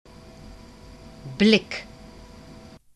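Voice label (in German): "blick", German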